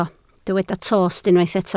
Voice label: "tost", Welsh

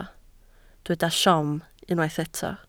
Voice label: "siom", Welsh

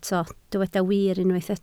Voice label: "wir", Welsh

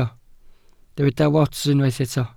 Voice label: "wats", Welsh

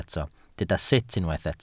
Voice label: "sut", Welsh

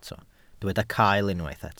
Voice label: "cael", Welsh

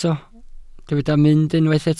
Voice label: "mynd", Welsh

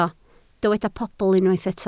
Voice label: "pobl", welsh

